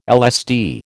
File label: "LSD", American